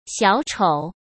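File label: "小丑", Mandarin Chinese; chinese flashcards; hsk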